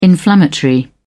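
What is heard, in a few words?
"inflammatory", British English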